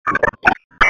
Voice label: Police Dispatch; 155.67 Stevens Point PD

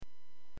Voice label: Aviation 122.7; KSTE

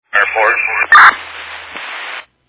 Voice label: Fire Dispatch

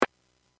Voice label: Aviation 121.5 Emergency

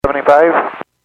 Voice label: Airports 122.7, Radio; KSTE

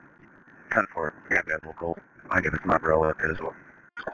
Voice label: Police Sheriff Dispatch

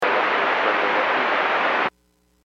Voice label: Aviation 122.7; KSTE

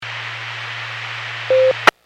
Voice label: Skywarn 146.67; Stevens Point

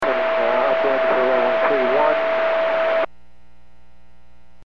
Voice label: Aviation 122.7; KSTE

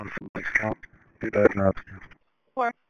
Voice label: Police Sheriff Dispatch

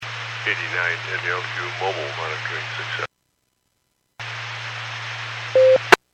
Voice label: Skywarn 146.67; Stevens Point

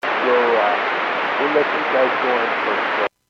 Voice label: Airports 122.7, Radio; KSTE

